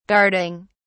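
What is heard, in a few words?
"guarding", British English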